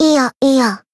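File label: attack, Japanese; nagi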